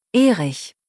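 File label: "Erich", German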